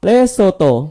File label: "lesotho", id; gcompris-data; geography